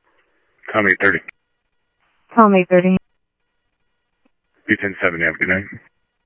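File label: Sheriff Lake Patrol, scanner audio trunk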